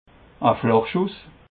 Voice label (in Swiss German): "flach schuss", alsace